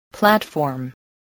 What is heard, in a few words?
"platform", English